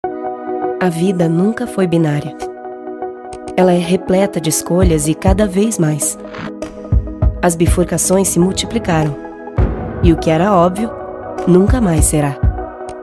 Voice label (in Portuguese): LOCUTORA